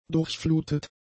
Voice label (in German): "durchflutet", german; adjectives